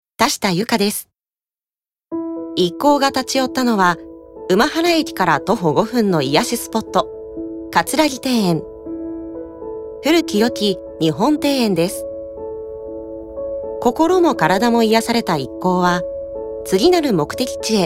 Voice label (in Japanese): ノーマル